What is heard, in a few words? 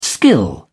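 "skill", British English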